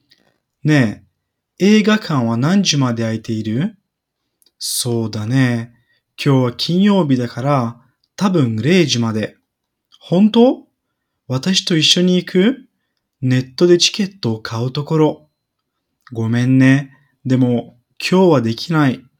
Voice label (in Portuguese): Versão rápida (como os nativos); Semana 4; から....まで